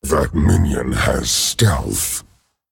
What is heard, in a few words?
Male Dragon, ERROR STEALTH; Can't target stealthed minion; That minion has Stealth.; en; 风暴巨龙迦拉克隆（英雄）